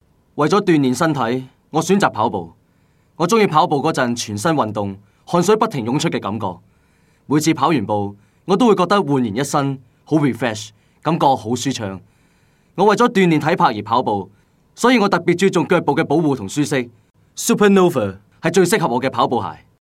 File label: Cantonese Male Voice